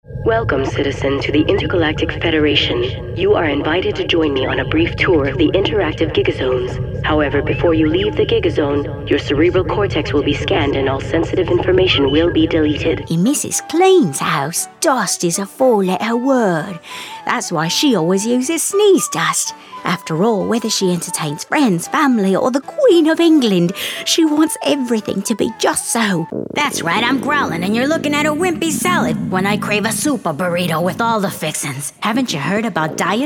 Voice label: commercial character